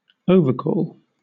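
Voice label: "overcall", British English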